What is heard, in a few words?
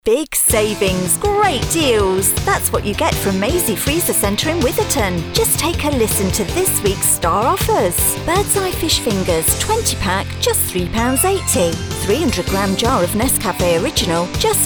Commercial Energetic